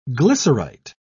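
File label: "glycerite", English, British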